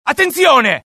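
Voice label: alert, Italian; mohaa; dialogue; sighted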